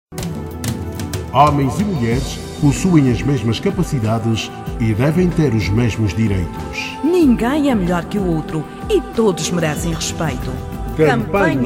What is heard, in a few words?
Radio Spot Portuguese; Angola